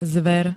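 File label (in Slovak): "zver", Slovak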